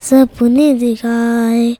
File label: CHILD VX, Indian Traditions